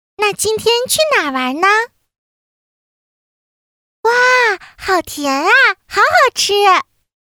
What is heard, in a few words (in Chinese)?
女 童声 女童